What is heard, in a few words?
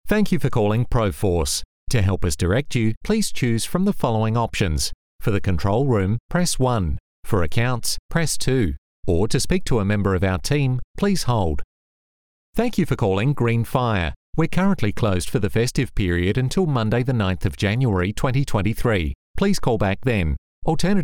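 English Australian, male, on hold IVR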